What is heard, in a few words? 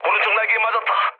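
Korean right wing mood low; War Thunder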